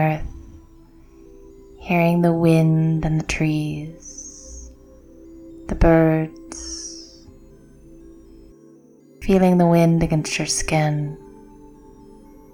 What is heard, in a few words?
relaxation in the forest